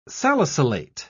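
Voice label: "salicylate", British English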